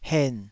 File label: "hen3", taiwanese